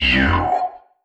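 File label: "you", male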